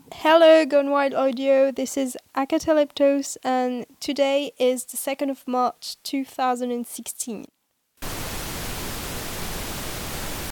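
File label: Female verification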